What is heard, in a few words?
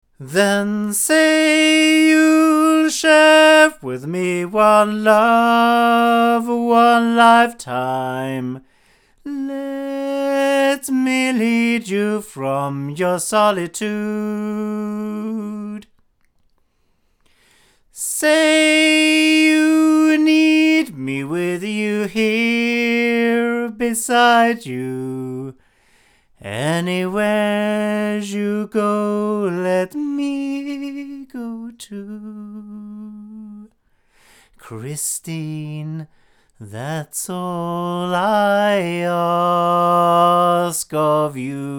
male; sE Icis; microphones; se electronics